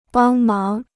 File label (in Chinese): "帮忙", Chinese